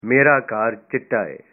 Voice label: my house is white, punjabi